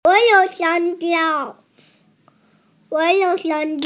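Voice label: "xiang1jiao1", Mandarin Chinese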